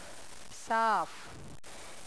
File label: "clean", Gujarati